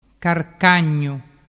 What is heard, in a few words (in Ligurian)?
"carcagnu", dialettu